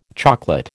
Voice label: "chocolate", American

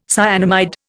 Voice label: "cyanamide", Indian

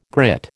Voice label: "grit", American

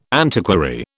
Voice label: "antiquary", British

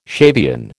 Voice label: "Shavian", American

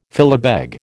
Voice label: "filibeg", American

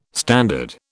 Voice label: "standard", British

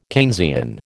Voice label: "Keynesian", American

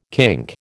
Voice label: "kink", American

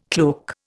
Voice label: "cloak", Indian

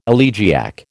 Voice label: "elegiac", American

